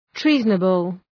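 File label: "treasonable", English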